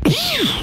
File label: "pwsh" male; ooh ahh; human